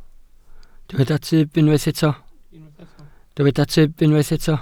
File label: "tyb", Welsh